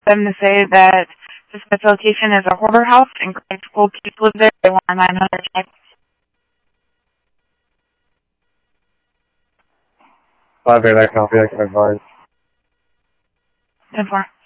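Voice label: Mesa Police Department simulcast, scanner audio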